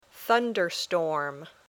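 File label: "thunderstorm", English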